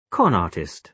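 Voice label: "con artist", British English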